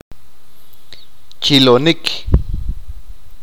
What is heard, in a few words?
contar una historia, achi